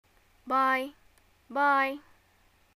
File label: greeting goodbye, arabic